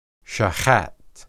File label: shahat, hebrew